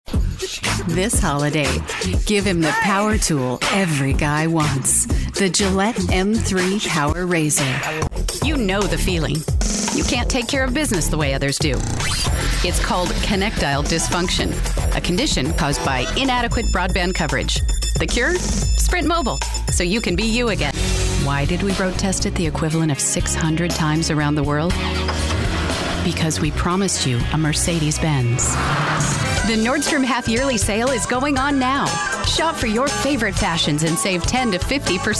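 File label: Commercial Female, authentic, full; Commercial Voiceover, TV and Radio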